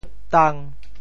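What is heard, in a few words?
"tang5", Teochew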